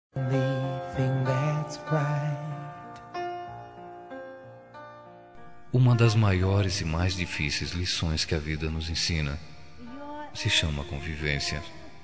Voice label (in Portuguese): telemensagem, Perdoa-me mulher, M; Perdão